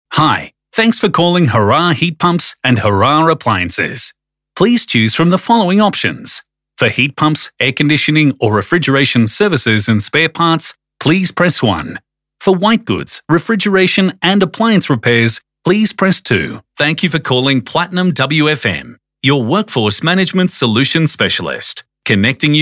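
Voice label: Australian Phone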